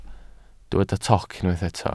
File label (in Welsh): "toc", Welsh